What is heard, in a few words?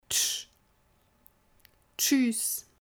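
"tsch", Swiss German; Kapitel 2; Alphabet Speziallaute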